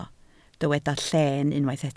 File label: "lleen", Welsh